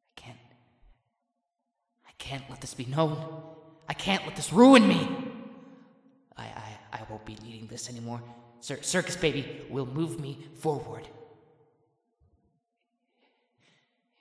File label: Voice Acting; Five Nights at Ronald's